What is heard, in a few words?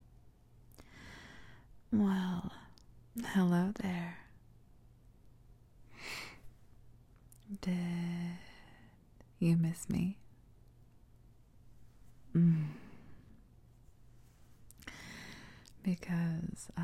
Sultry, Sensual, Soft Spoken; [F4A] A Full Moon Ramblefap